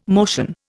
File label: "motion", Indian